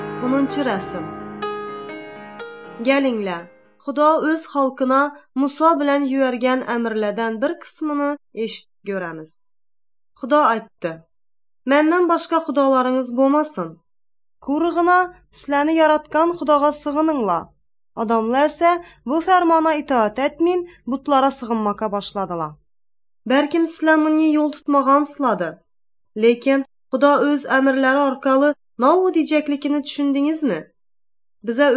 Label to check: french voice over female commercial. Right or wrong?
Wrong